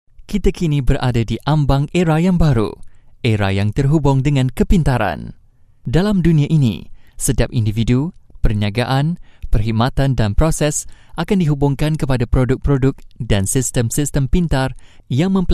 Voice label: Malay Male